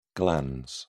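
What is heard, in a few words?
"glans", British English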